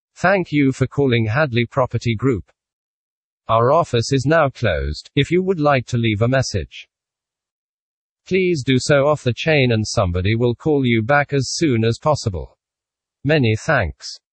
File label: male, UK